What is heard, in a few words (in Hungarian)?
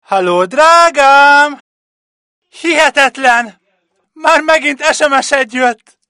Drágám SMS férfi; csengőhang